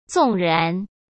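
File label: "纵然", Mandarin Chinese; hsk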